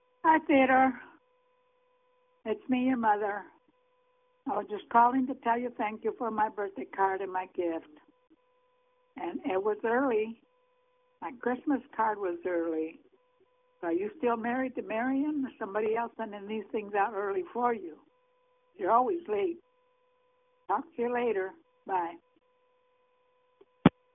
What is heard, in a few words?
voicemail